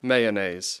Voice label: "mayonnaise", English